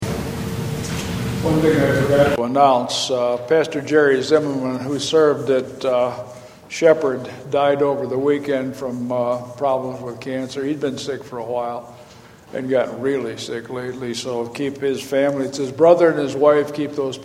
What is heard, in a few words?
Announcements